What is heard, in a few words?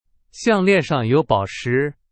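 Chinese; hsk4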